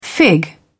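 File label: "fig", English, British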